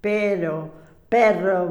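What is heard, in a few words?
"pero perro", Spanish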